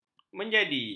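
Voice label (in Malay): "menjadi", Malay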